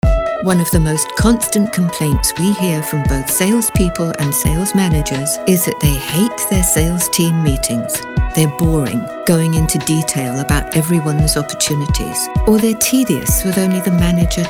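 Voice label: NARRATION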